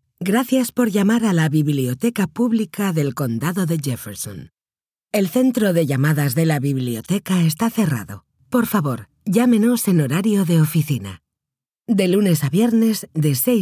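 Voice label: spanish interactive voice response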